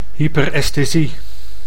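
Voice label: "hyperesthesie", Dutch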